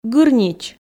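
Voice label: "гырнич", Komi, female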